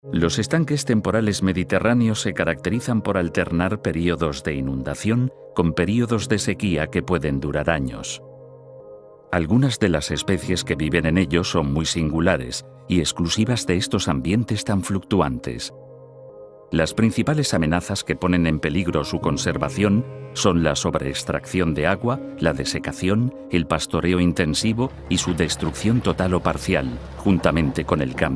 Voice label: male spanish documentary comercial

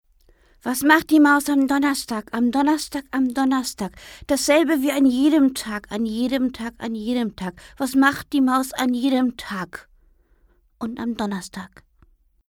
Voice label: female german kids comercial; ideasonora

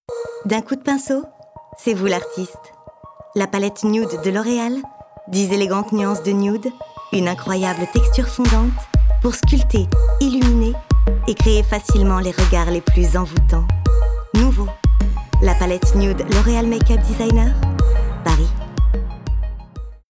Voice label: female french tv comercial; ideasonora

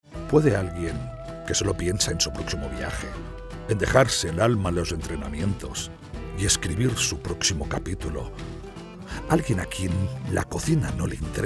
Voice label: male spanish food comercial; ideasonora

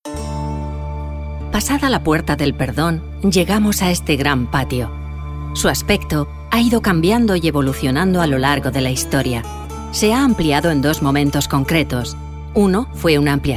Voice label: female spanish audioguide; ideasonora

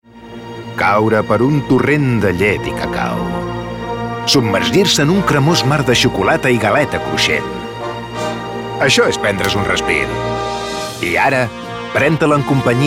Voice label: male catalan comercial; ideasonora

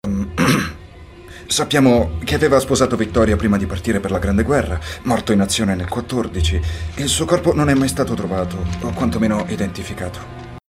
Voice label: male italian comercial; ideasonora

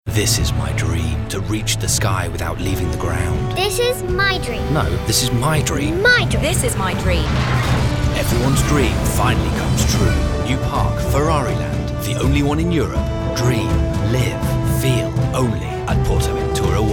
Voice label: male british english park comercial; ideasonora

